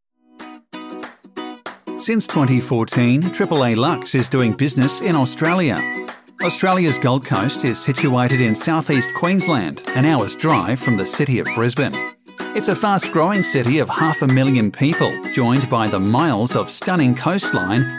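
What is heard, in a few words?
male, Australian English, commercial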